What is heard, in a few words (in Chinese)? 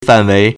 "fan4wei2", Chinese